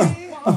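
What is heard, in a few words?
"uh uh", male; ooh ahh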